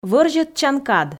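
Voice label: "вӧрзьӧдчан кад", Komi, female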